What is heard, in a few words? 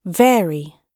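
"vary", British English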